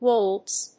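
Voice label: "Wolds", English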